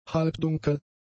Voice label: "halbdunkel", German; adjectives